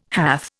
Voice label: "hath", Indian